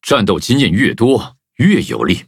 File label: levelup zh-hant; Cookie Run